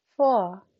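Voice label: "vor", German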